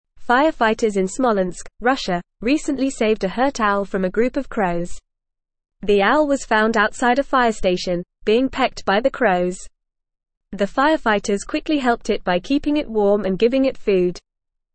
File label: English Upper Intermediate FAST Reading; Firefighters Rescue Injured Owl Attacked by Crows